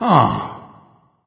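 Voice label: sigh, dragon; fafnir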